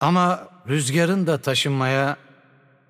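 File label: Turkish game intro; VO; bloom minigame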